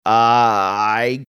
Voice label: drawn out i

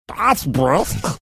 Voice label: saying thats brisk as if his mouth full of water

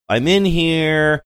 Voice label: saying im in here sing songy

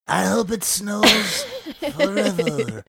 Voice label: gravelly voice; i hope it snows

